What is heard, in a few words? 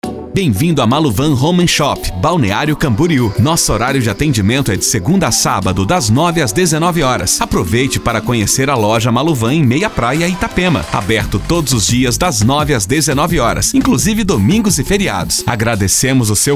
portuguese brazilian ivr